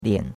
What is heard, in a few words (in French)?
"lian3", chinois; Cours de Chinois